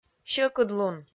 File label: "сьӧкыдлун", Komi